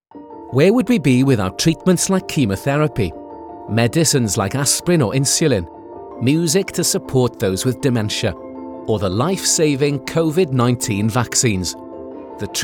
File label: Voiceovers UK Showreel